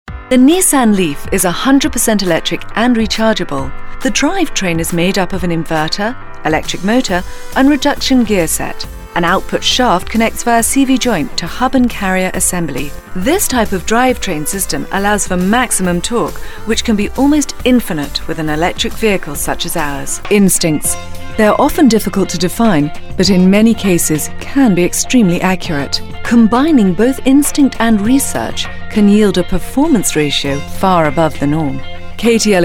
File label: 30s40s Female British Narration